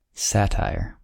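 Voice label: "satire", American English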